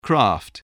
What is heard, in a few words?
"craft", voice spelling